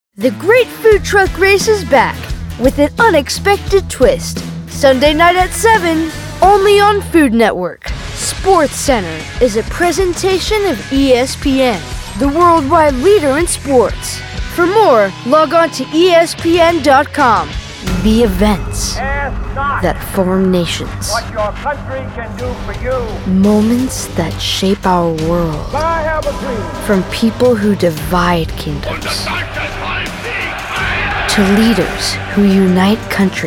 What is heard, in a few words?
Promo